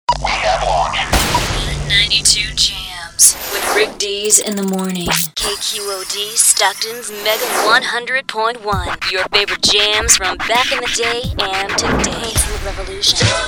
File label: OLDIES RADIO IMAGING